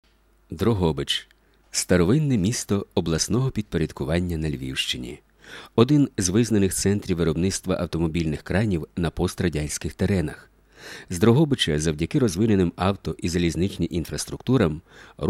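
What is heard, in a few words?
ukrainian male voice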